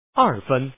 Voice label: Man, lord